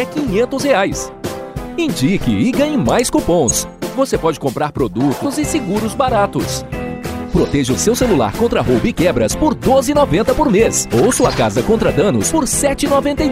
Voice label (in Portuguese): PADRÃO INSTITUCIONAL APLICATIVO